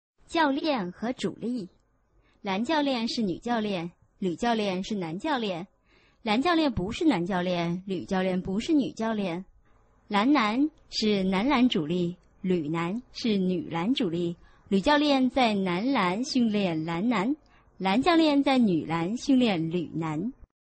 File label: chinese tongue twister